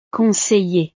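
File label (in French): "conseiller", French; profesiones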